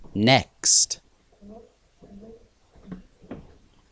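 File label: "pump", spoken word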